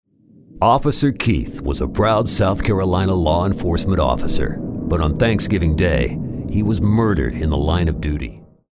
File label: Authoritative